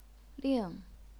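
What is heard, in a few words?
"ling", fourth tone, chinese pinyin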